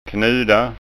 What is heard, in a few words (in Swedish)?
"knuda", skånska ord